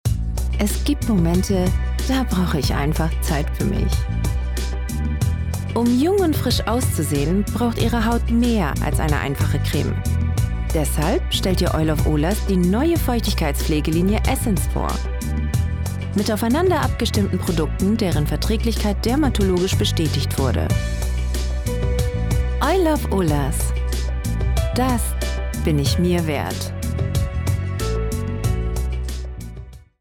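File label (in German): Voice-over Werbung; Oil of Olaz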